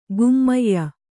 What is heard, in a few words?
"ಗಮ್ಯ", Kannada